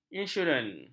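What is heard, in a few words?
"insurans", Malay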